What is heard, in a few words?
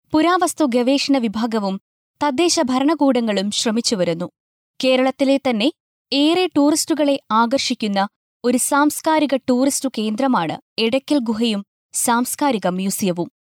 female malayalam voice over narrative